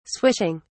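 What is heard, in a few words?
"swishing", British English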